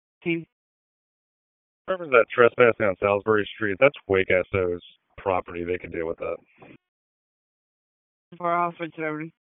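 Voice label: Police Dispatch, p25; Raleigh; Southeast District; wake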